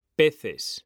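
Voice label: "peces", Spanish; mekanta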